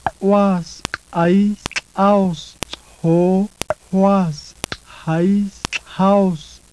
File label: clicks, Several words of a click language